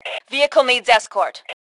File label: Need Escort, Female; T2 Female 01